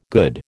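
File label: "good", American English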